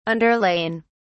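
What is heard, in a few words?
"underlain", English, British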